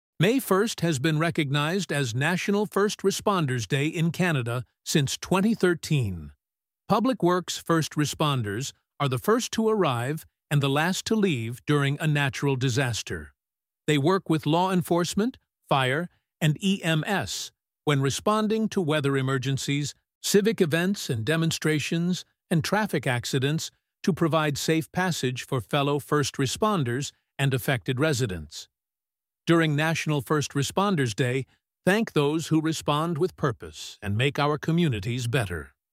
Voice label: Canadian PSA Male; 30-second PSA, male voice; Canada’s National First Responders Day is May 1